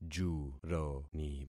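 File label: "juroni", concatenated syllables; Affixing experiment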